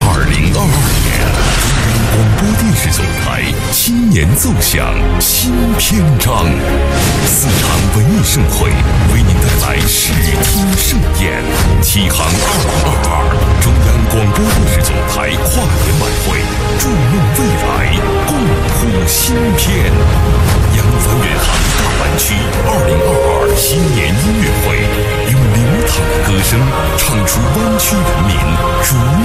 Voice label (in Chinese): 男 宣传片 央视栏目导视